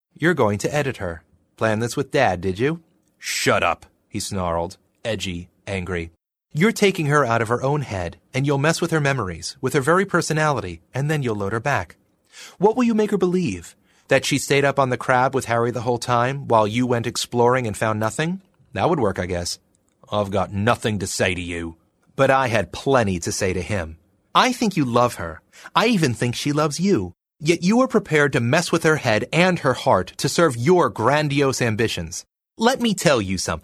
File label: us audiobook